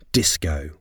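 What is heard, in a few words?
"disco", English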